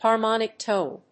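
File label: "harmonictone", English; 倍音; Weblio英和辞書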